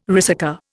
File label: "ricercar", Indian